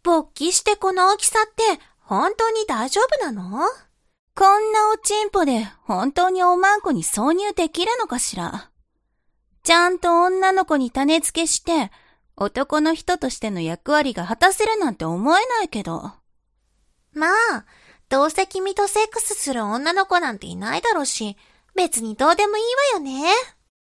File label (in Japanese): 懲罰中音声, ASMR